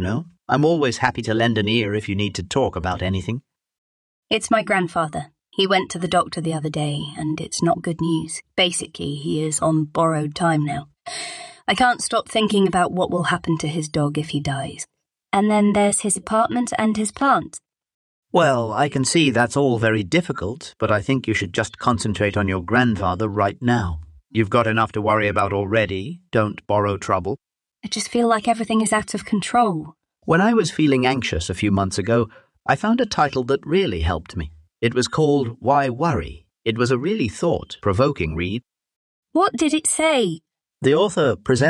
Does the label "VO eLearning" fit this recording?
No